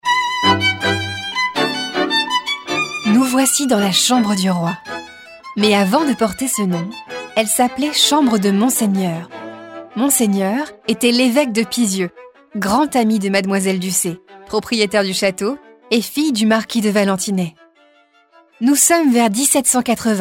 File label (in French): AUDIOGUIDE; La Chambre du Roi